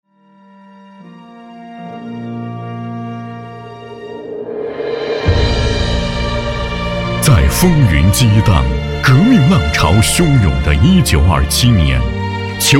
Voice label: 男 革命专题; 武曲宫

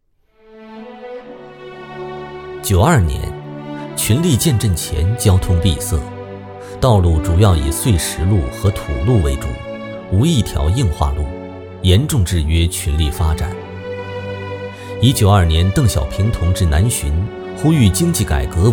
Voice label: 男 纪录片风格

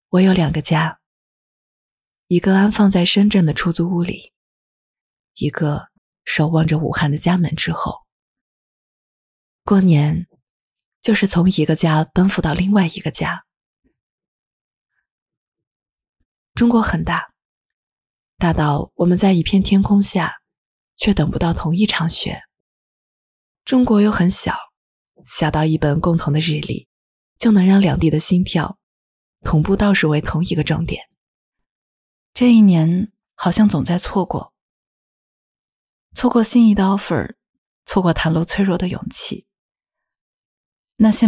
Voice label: 女 讲述; 华润

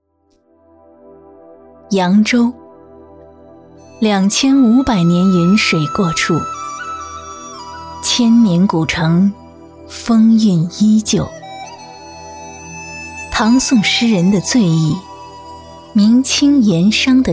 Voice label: 女 纪录片; 扬州

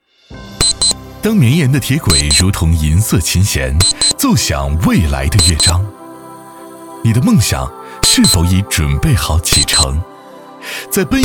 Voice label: 男 年轻宣传

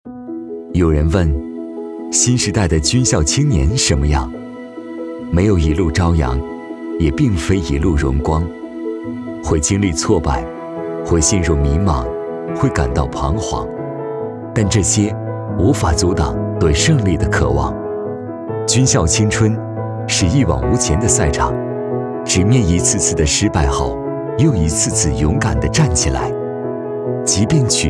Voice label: 男 学校 青春感; 中国空军军校

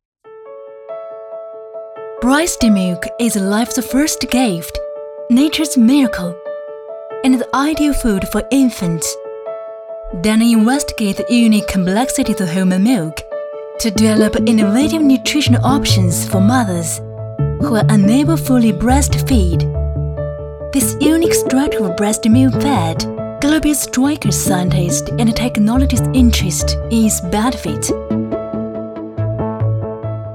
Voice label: 女 英文宣传片; Danone

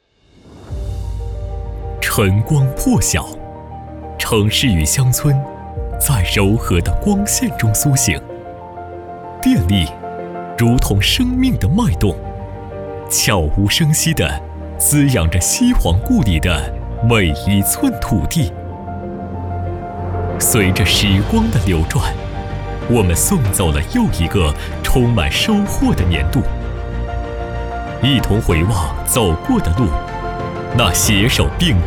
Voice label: 男 党政

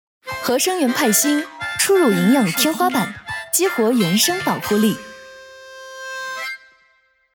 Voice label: 女 广告

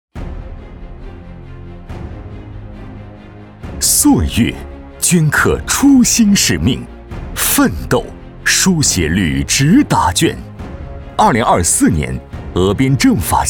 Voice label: 男 政府 激昂; 峨边政法

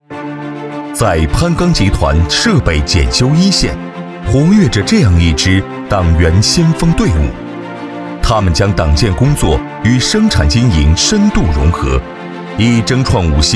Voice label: 男 专题片; 攀钢集团

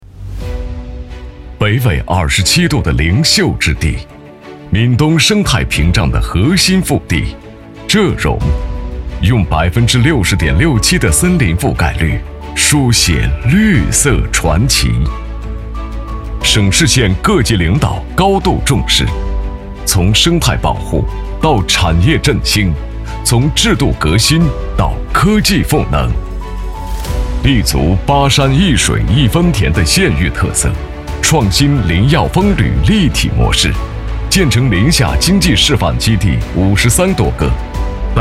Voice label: 男 专题 林业局; 柘荣

